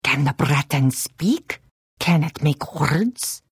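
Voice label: Hello, Khajiit female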